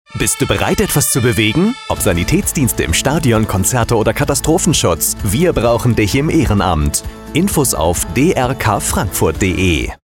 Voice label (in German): Funkspot; DRK Frankfurt am Main